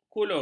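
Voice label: "kulur", Malay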